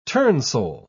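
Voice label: "turnsole", British English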